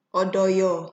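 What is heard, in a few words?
"odoyo", Nigerian Pidgin, female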